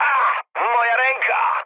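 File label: damaged pilot, mood low, Polish speech; War Thunder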